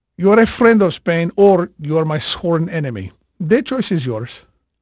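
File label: Spanish male, voice; Pirates Of The Caribbean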